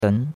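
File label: "den2", Chinese; Cours de Chinois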